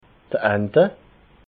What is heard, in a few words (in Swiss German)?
"anta", alsace